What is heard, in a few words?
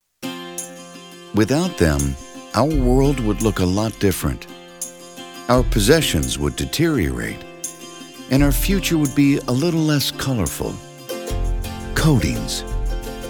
narration non broadcast